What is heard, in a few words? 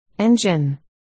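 "engine", spoken word, articulation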